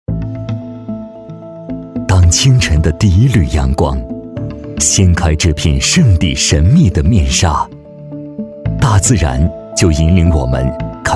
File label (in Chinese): 男 景区 稳重 讲述